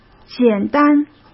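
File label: "简单", Mandarin Chinese; chinese-flashcards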